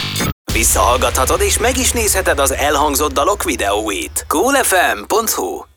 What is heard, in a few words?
hungarian tv shows